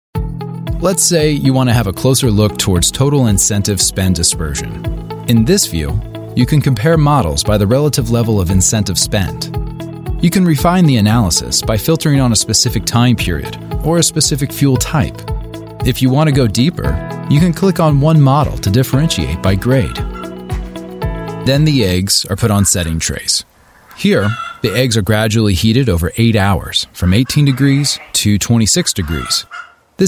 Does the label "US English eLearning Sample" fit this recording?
Yes